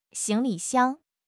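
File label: "xing2 li5 xiang1", Chinese; HSK3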